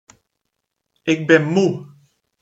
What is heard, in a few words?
pronunciation nl ik ben moe; język niderlandzki podstawowe zwroty